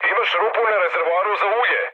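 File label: Serbian damaged oil mood low, speech; War Thunder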